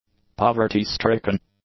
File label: "poverty-stricken", english; adjectives